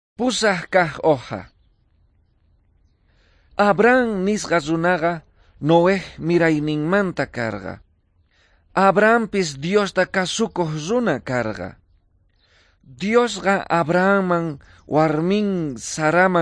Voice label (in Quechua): quechua bolivian cochabamba; good news